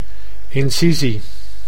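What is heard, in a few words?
"incisie", Dutch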